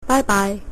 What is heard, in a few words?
goodbye, cantonese